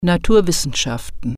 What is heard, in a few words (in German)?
"science", German